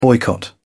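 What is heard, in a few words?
"boycott", English, British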